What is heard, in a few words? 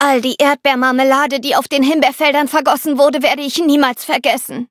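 loading, German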